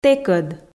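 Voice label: "тэкӧд", Komi, female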